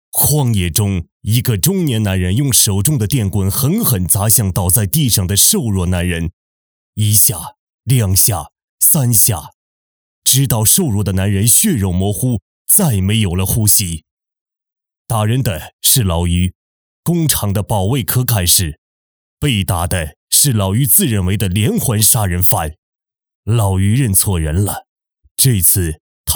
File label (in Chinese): 解说